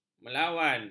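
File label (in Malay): "melawan", Malay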